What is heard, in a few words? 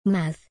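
"mouth", English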